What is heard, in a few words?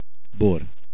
Wine, hungarian